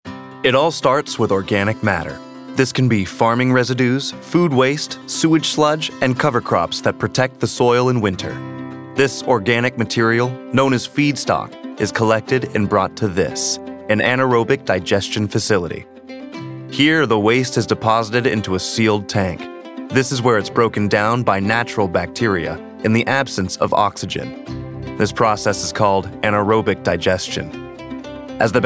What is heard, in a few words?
Corporate